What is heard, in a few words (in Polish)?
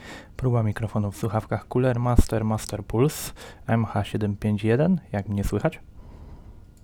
Cooler Master MH751 Test Mikrofonu